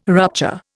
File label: "rupture", Indian